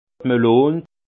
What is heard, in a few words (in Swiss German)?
"melon", alsace; vin